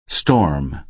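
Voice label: "storm", American English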